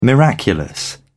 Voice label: miraculous, uk